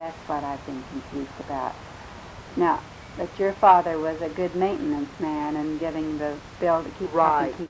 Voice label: Oral history with 54 year old black female Grand Junction Colorado and a 61 year old black; american english dialect recordings